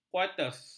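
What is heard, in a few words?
"kuarters", Malay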